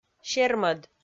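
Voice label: "сермӧд", Komi, female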